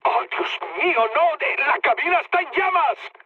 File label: on fire, mood high, speech; War Thunder